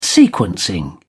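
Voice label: "sequencing", British English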